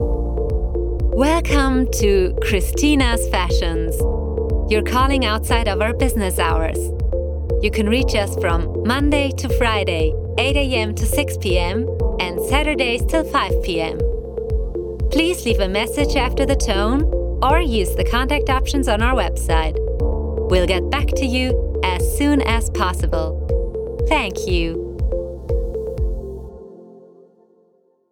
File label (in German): Telefonansage engl, Sprecherin